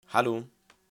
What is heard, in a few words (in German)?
"Hallo", German